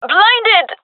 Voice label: blinded, gendarmerie fem epic; vo; agents

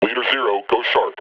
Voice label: combine announce; combine soldier

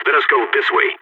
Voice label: radio follow me, balkan; vo

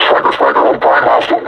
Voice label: announce attack, combine suppressor; alyx; Half Life Alyx

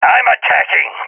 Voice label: balkan attacking; vo; balkan epic

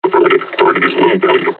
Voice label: taunt, combine charger; Half Life Alyx

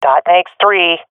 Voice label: enemies left three, swat fem; vo; Counter Strike Model Pack; CS:GO

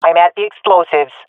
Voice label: omw to loose bomb, swat fem; vo; Counter Strike Model Pack; CS:GO

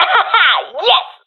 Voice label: cheer, professional fem; vo; Counter Strike Model Pack; CS:GO

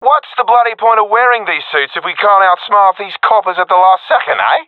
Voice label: round losing, professional; vo; Counter Strike Model Pack; CS:GO

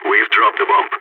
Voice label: spotted loose bomb, balkan; vo

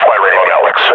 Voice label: announce attack, combine grunt; alyx

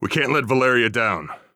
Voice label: pep talk; Counter Strike Model Pack; CS:GO; phoenix coop

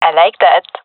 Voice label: compliment, gendarmerie fem epic; vo; agents

